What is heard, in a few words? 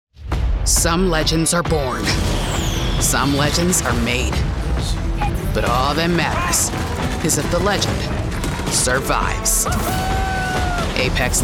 Storyteller Game Trailer; Apex Legends